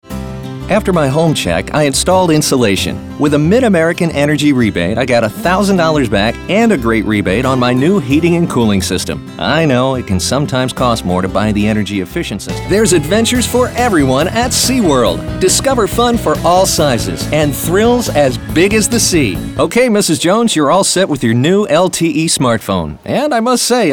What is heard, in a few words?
VO Demo